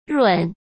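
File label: "润", Mandarin Chinese; hsk; chinese flashcards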